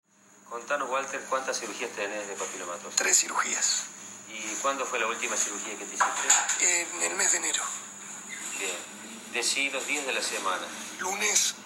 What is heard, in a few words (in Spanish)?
PRE OP AUDIO, Voice before surgery, Papilomatosis, Hombre. 35 años; Score de Severidad de Derkay: 8; Archivo de voz. Preoperatorio